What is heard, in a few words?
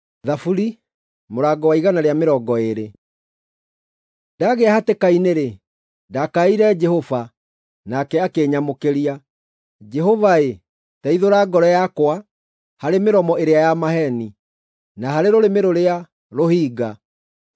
psalms, gikuyu drama